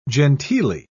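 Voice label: "genteelly", British English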